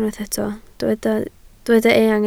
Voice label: "eang", Welsh